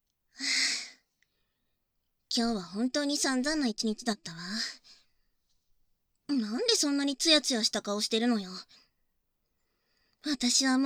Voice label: エンディング（バイノーラルマイク収録）

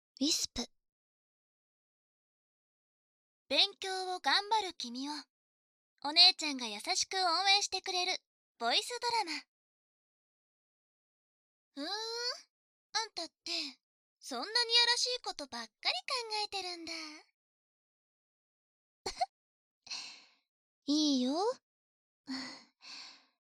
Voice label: タイトルコール

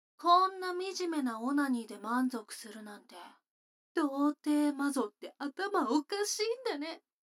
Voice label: 女の子 台詞, ASMR

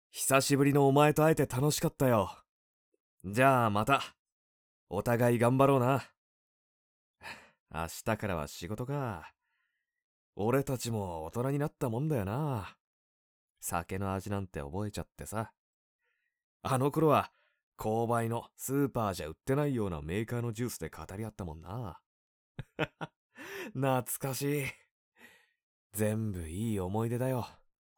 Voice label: 葬式前 男; 葬式音声男; 生前交流; 効果音なし

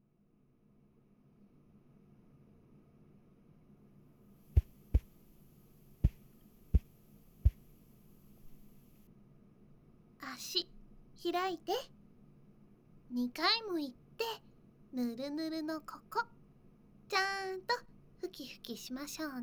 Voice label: ピロートーク; とわのともしび ～えっちで嫉妬深い宇宙人新妻とラブラブ結婚生活する百合音声～; 身体ふきふきのあとの、ピロートーク

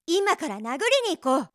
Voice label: 今から、殴りに行こう！, 励ます少女

